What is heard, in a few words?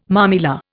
"mamilla", Indian